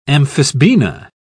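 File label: "amphisbaena", British English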